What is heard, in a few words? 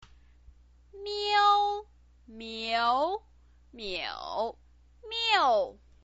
"miu", Chinese pinyin voice